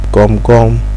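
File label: "gum gum", Nigerian Pidgin, male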